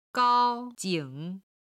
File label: "gao1 jing3", Chinese